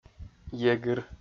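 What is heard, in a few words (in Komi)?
"егыр", Komi, male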